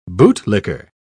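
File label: "bootlicker", British English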